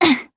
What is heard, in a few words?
Landing, jp; DarkFrey; kingsraid